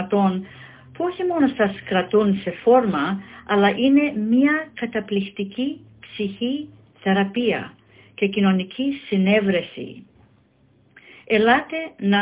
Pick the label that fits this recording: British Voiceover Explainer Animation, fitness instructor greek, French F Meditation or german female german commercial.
fitness instructor greek